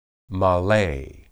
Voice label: "male", Hebrew